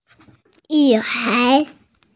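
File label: "girl", Mandarin, hearing-impaired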